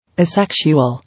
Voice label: "Asexual", English